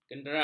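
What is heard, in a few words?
"kenderap", Malay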